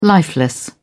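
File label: "lifeless", British English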